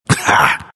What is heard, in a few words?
announcer ally; Dota 2; bastion announcer